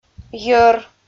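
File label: "йӧр" Komi, female; audio dict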